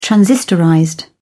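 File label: "transistorized", British English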